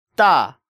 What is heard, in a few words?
"Dda", Korean